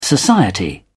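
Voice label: "society", British English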